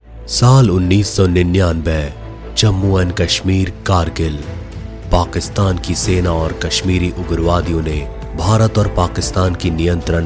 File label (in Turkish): Hintce Erkek; seslendirme